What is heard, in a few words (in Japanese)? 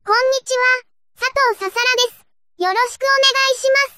ピッチ 1音上げ; さとうささら